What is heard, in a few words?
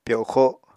"pyoxo", Apatani